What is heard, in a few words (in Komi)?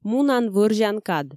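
"мунан, вӧрзян кад", Komi, female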